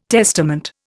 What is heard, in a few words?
"testament", Indian